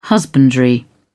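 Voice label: "husbandry", British English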